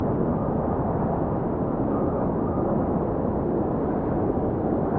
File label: whisper voice; Horror